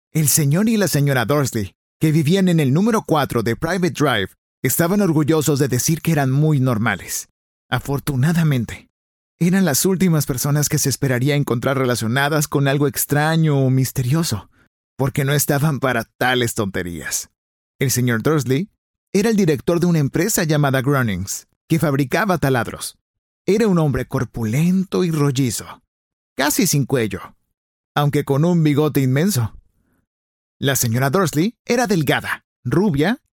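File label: Latin American Spanish, Narrative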